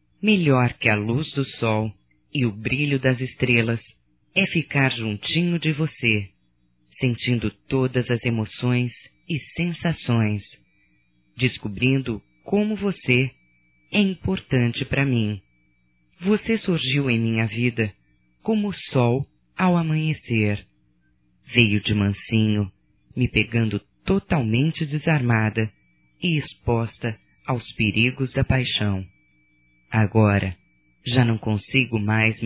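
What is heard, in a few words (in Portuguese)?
voz romântica, fem; Telemensagens 2017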